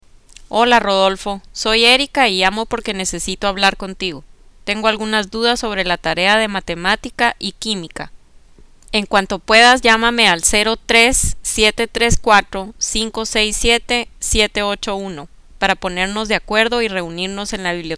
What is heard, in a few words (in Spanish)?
mensaje telefonico